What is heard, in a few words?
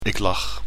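"ik lach", Dutch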